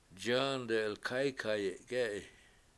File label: John Is Tall, washo; john delkAykayi7 k7E7i